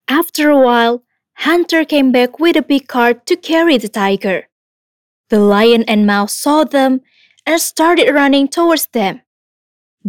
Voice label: KARAKTER ENGLISH, voiceover